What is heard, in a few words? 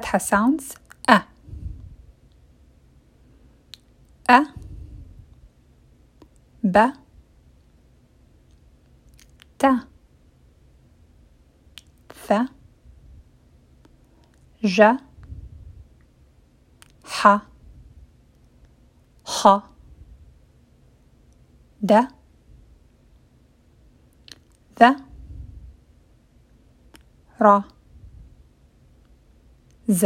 arabic letters with fat-ha